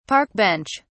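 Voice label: "park bench", British English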